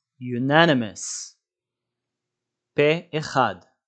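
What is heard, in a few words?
"unanimous" English, "פה אחד" Hebrew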